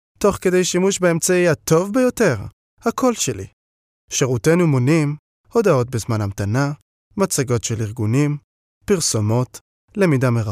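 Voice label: Hebrew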